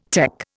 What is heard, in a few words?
"tech", Indian